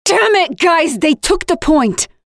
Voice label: Enemies have reset captured progress, Voiceovers; Project Argo

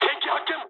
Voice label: enemy detected mood high, speech jp; War Thunder

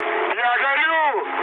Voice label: on fire, mood low, speech ru; War Thunder

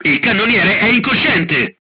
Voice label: gunlayer contused, crew tanks italian; War Thunder

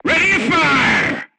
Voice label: voice message charged, crew tanks portuguese

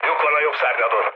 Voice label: right wing, mood low, speech hun; War Thunder

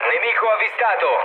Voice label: enemy detected mood low, speech it; War Thunder

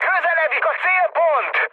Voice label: near waypoint, mood high, speech hun; War Thunder

